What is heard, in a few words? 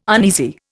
"uneasy", Indian